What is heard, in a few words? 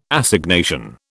"Assignation", British